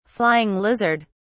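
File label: "flying lizard", British English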